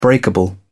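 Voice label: "breakable", British English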